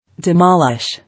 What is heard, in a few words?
"Demolish", English